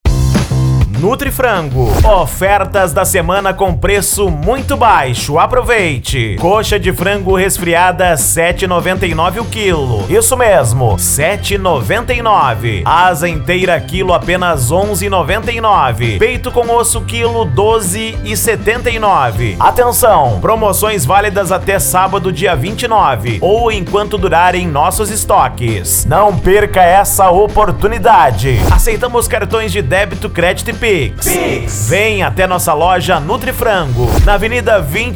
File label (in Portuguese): Locutor; Nutrifrango Sapiranga RS